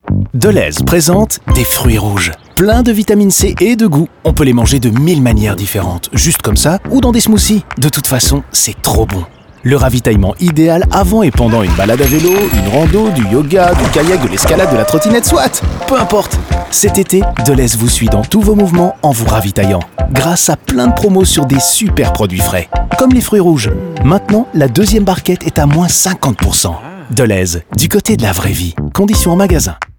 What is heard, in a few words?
radio; fruits rouge; on air